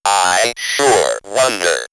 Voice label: Robot, robot vox; I Shure Wonder; X-Static Goldmine

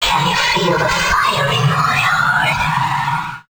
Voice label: male, voice

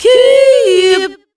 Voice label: KEY YUP, ADLIB WOM, Funky Vocals; USB Soundscan vol.18; AKAI

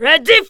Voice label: READY VOX, SHOUTS, Funky Vocals; USB Soundscan vol.18; AKAI

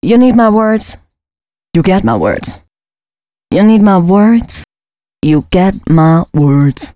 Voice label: female spoken hooks, dance ultra voice; Mutekki Media; Dance Ultra Voice VOL-1

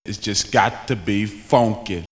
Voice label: "Got To Be Funky", rap vocals; X-Static Goldmine

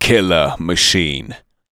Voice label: "KILLER MACHINE", SPOKEN; Techno Trance Essentials

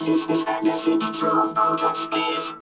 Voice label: VOCODER VOX; Masterbits - Tekkno Trax

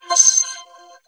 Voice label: MACHINE, VOCODA SPEECH; Zero-G Ambient 1

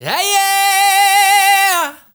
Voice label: ZULU MALE

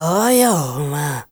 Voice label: ZULU MALE

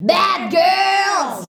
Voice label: "bad girls", shouts; Best Service; Hyper Dance

